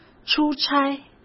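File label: "出差", Mandarin Chinese; chinese flashcards; hsk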